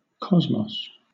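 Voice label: "cosmos", British English; pronunciations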